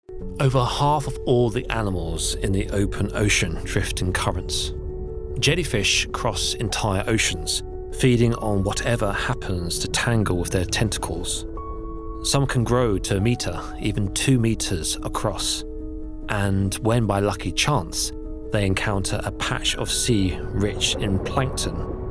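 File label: English UK Male Documentary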